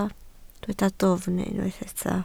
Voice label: "dwfn", Welsh

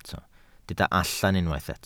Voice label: "allan", Welsh

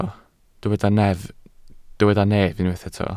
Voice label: "nef", Welsh

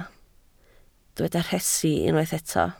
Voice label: "rhesi", Welsh

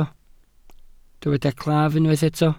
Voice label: "claf", welsh

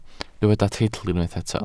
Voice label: "teitl", Welsh

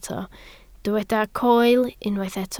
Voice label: "coel", Welsh

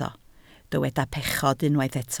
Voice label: "pechod", Welsh

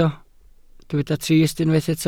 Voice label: "trist", Welsh

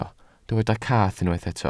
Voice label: "cath", Welsh

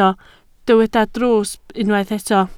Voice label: "drws", Welsh

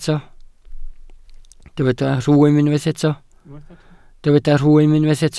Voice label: "rhwym", Welsh

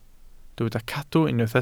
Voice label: "cadw", Welsh

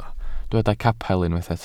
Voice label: "capel", Welsh

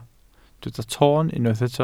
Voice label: "toon", Welsh

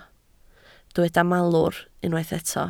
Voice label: "malwr", Welsh

